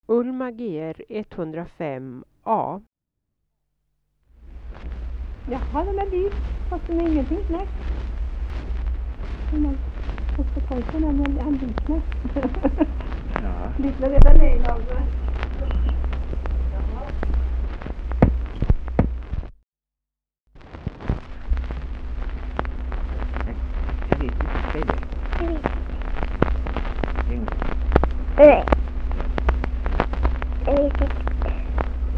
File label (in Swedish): Hede, dialekter